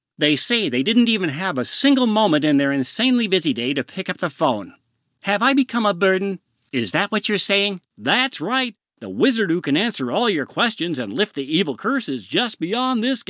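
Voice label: Character Voices, Male Voice Over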